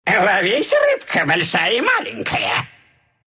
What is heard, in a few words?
attack, ru; Slark